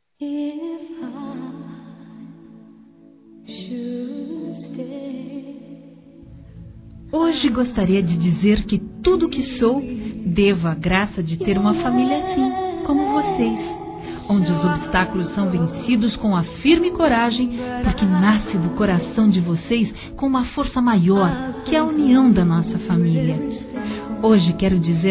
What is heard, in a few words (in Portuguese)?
Aniversário Casamento Pais Feminino; Aniversários